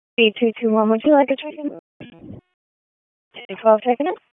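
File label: Police Dispatch, p25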